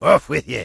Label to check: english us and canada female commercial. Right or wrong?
Wrong